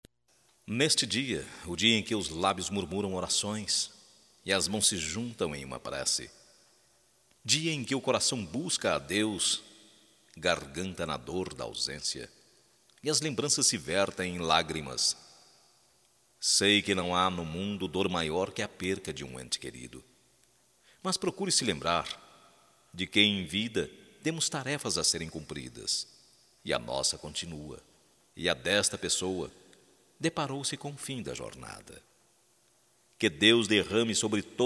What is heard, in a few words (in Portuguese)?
CONFORTO PESAMES, masc